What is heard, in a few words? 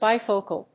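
"bifocal", English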